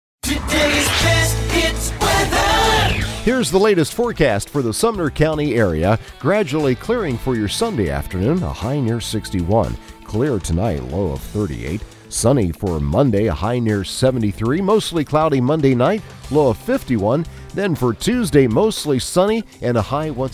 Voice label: RADIO FORECAST; NETWORK